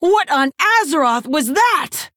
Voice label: Female Orc Wow